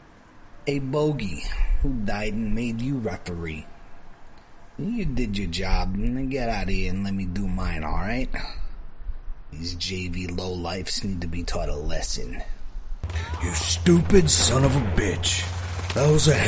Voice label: Character Sampler